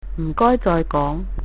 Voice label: say again, cantonese

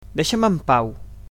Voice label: "leave me alone", Catalan

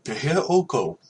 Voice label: how are you, hawaiian

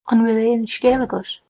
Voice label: how are you, irish

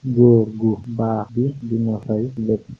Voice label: pay, wolof

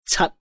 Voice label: cantonese, numbers

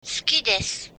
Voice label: i love you, japanese

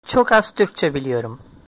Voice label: a little, turkish

